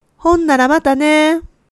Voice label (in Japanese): "honnara", woman; hogen